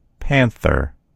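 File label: "panther", American English; gcompris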